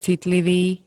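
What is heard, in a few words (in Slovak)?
"citlivý", Slovak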